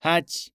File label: Japanese Number